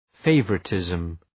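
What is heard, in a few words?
"favouritism", English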